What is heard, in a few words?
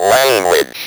"LANGUAGE", Speak & Spell